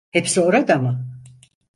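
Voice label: "hepsi orada mi", Turkish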